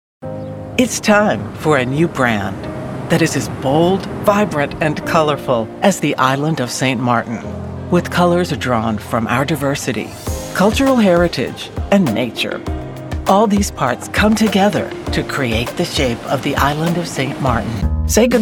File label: Commercial